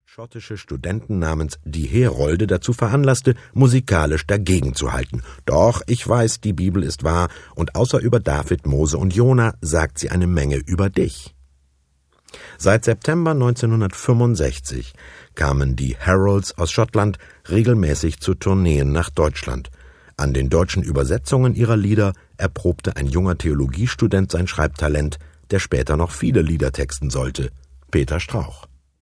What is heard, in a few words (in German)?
moderation